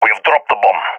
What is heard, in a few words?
sees dropped bomb, balkan; vo; balkan epic